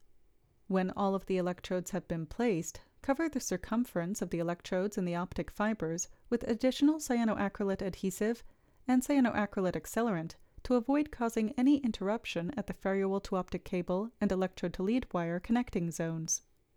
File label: Voiceover